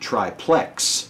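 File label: "triplex", American English; pronunciations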